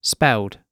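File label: "spelled", British English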